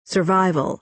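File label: "survival", American English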